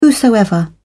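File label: "whosoever", British English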